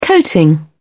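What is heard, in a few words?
"coating", British English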